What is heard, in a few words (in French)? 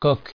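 "coq" French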